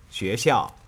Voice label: "Xuexiao", Chinese

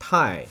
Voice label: "tai", Chinese

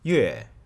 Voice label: "Yue", Chinese

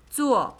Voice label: "zuo", Chinese

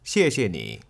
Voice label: "Xiexie Ni", Chinese

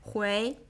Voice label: "hui", Chinese

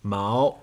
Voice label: "Mao", Chinese

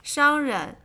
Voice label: "Shangren", Chinese

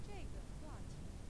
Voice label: "Zhege Duoshao Qian", Chinese

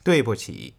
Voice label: "Dui buqi", Chinese

